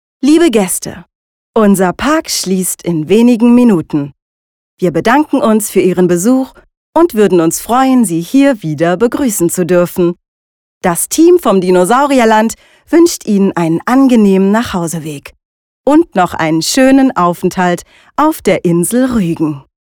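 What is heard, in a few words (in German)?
ansage dinosaurierland rügen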